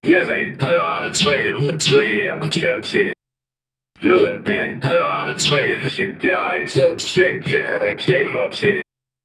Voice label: radio station dj sfx, announcer